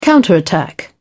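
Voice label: "counterattack", British English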